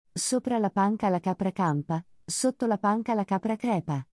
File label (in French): VIRELANGUE ITALIEN; LES DÉFIS LV : DÉFI n°2, LE JEU DU VIRELANGUE